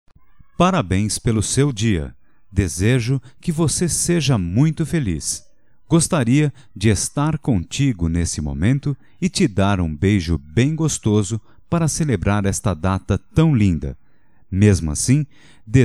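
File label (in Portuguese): Anivers neutro, Só Voz, masculino; Telemensagens; Telemensagens 2017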